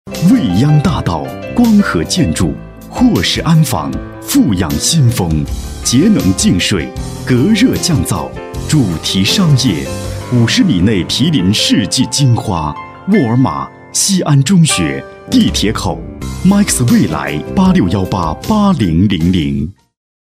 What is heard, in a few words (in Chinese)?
男 沉稳欢快 房地产广告